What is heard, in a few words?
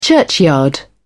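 "churchyard", British English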